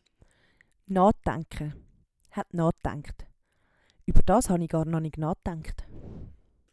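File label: "naadaenke", Swiss German vocabulary; Wortschatz Kapitel 7; Kapitel 7 Zusatzwortschatz 1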